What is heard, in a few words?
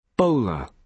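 "bowler", British English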